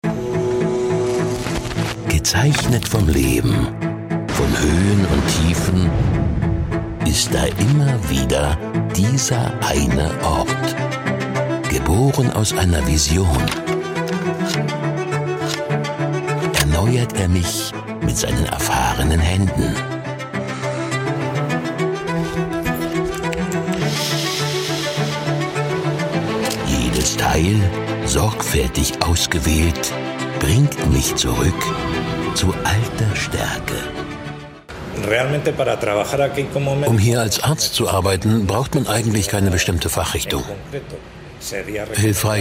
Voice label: sprechermix

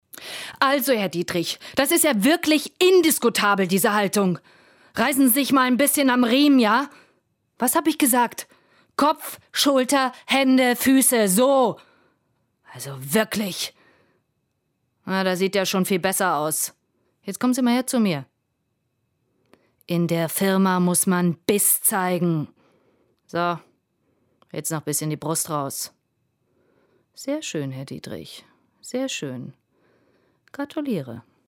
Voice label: streng

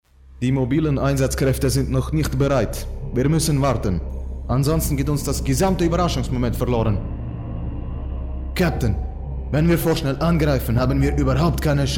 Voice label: sprechsamples russ ruhig

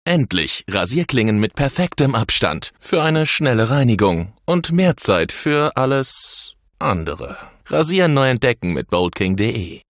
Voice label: werbung; boldking

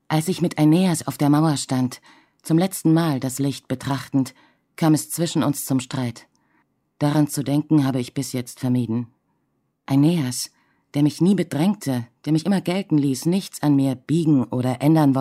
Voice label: Hörbuch

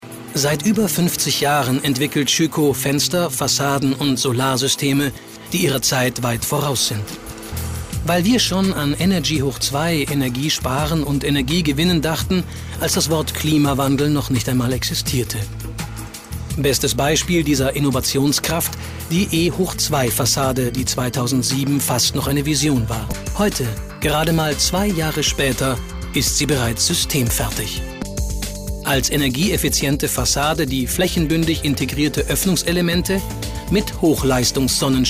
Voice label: Imagefilm

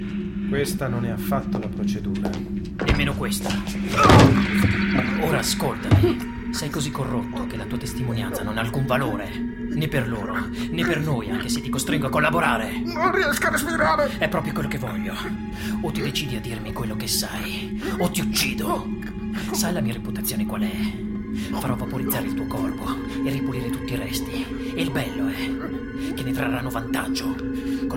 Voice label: film synchron

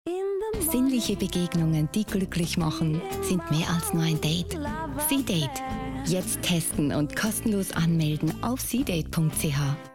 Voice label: schweiz tv spot; c-date

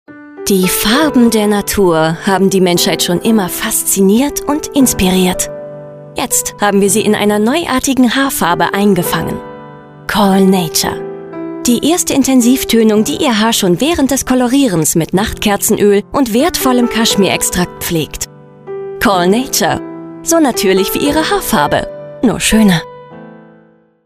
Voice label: werbung mit musik; colnature

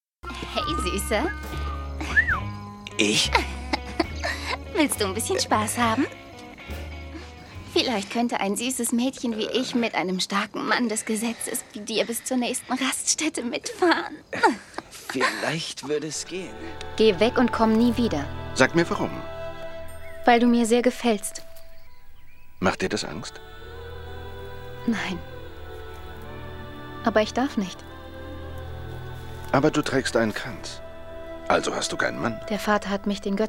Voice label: synchron

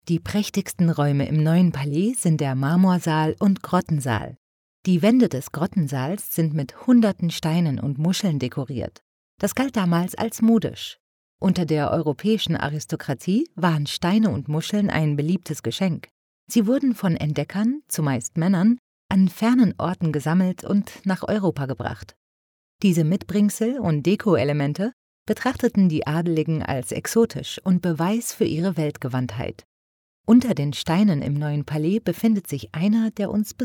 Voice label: de audioguide kolonialgeschichte

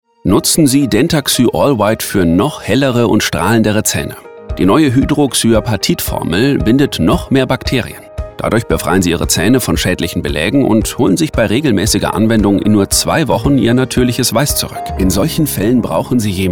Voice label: Werbung Gesundheit

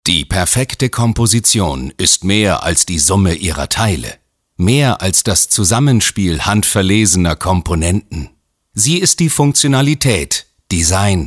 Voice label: image-clip; das perfekte system

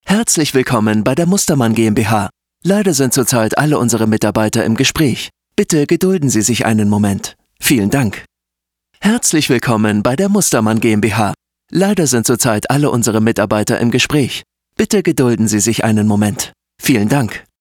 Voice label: Telefonansage

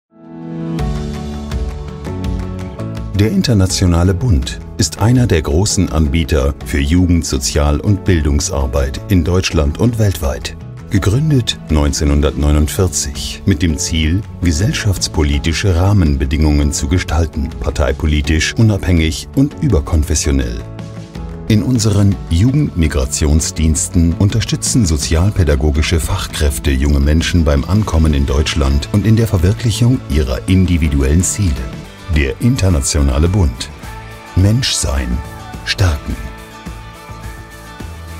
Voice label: Imagefilm; internationaler bund e.v

